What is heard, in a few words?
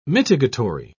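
"mitigatory", British English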